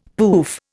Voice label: "pouf", Indian; Dictionary